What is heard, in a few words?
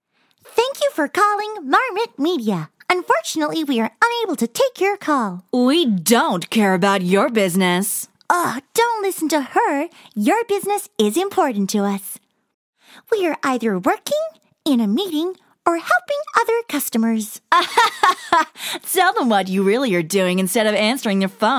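Double Character Voice, Australian English; Marmot Media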